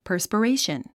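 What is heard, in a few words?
"perspiration", English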